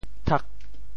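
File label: "thak8", Teochew; 读（讀）; 潮州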